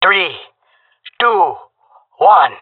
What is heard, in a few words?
countdown, jungle male; player vo; Counter Strike Model Pack; CS:GO